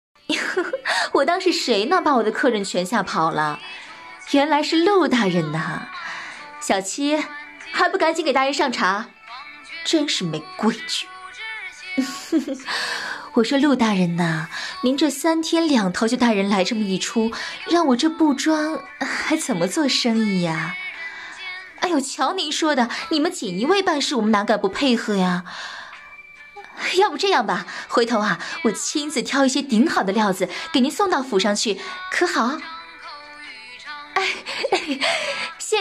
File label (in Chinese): 御姐店铺老板娘